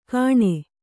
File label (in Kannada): "ಗಣೆ", Kannada